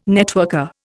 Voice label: "networker", Indian